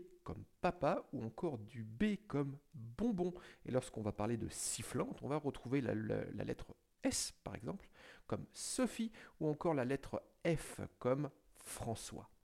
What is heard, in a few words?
HS80 RGB Wireless Test Micro